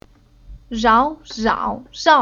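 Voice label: "Rao", Chinese syllable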